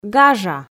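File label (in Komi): "гажа", Komi-Zyrian, female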